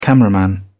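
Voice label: "cameraman", British English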